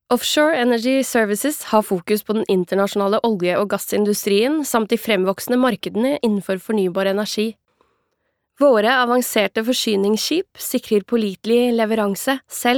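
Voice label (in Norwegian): informativ, Norwegian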